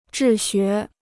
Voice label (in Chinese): "治学", Chinese